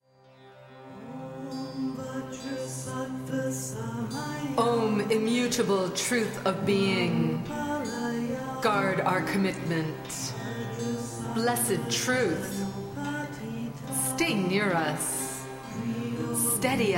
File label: Vajrasattva Meditation English